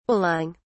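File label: "balling", British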